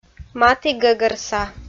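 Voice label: "матігӧгӧрса", Komi, female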